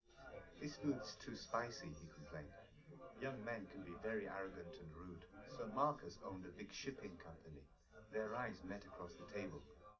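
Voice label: male, live recording; SiSEC11; underdetermined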